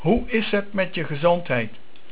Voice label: "Gezond", Dutch

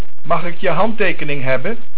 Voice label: "Handtekening", Dutch

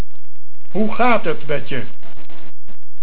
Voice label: "Hoe", Dutch

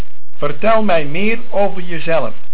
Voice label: "Vertel" Dutch

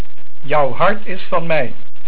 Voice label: "Hart", Dutch

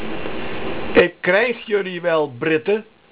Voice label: "krijg" Dutch

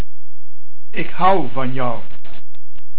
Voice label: "Hou", Dutch

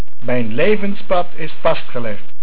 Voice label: "Levenspad", Dutch

